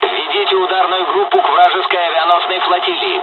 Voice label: fly, dialogs russian; War Thunder; midway

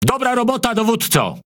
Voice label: Speech Quick Commands Good Game Ship, PL; World Of Warships

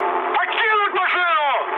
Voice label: bailout, mood high, speech ru; War Thunder

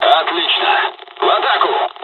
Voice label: dialogs russian; War Thunder

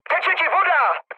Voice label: damaged water, speech cze, mood med; War Thunder

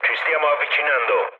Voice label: near waypoint mood low, speech it; War Thunder

